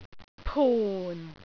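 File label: "pun4", Cantonese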